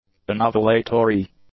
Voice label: "anovulatory", English; adjectives

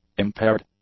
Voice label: "impaired", English; adjectives

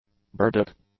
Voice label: "burdock", English; nouns

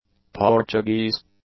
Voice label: "Portuguese", english; adjectives

